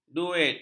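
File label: "duit", Malay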